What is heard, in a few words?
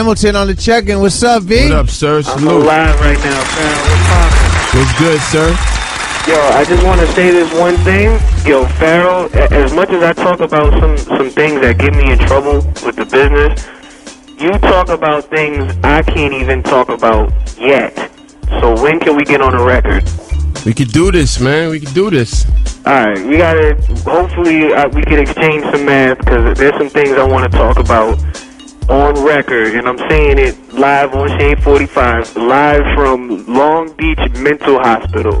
phone call